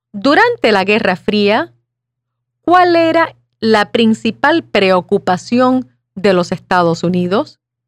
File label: Spanish